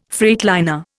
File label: "freightliner", Indian